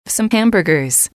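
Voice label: "some hamburgers", English, female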